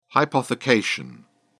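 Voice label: "hypothecation", British English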